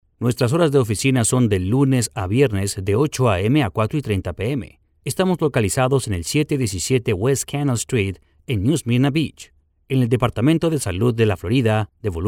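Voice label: Spanish Latin American, dry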